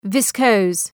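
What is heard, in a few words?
"viscose", English word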